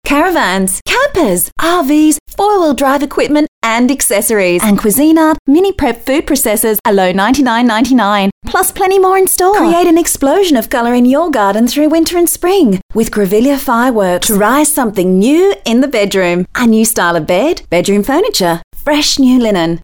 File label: Hard Sell